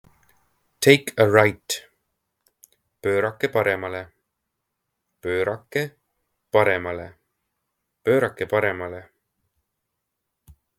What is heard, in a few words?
Take a right, estonian; the talking estonian phrasebook